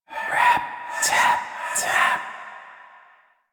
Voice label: Intelligible Whisper Rap Tap Tap G; Rell; Warframe; Harrow